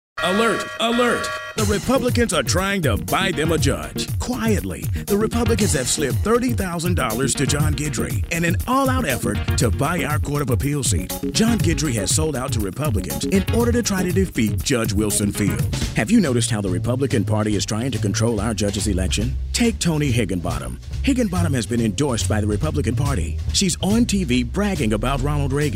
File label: political voiceover